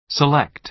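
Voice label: "select", American English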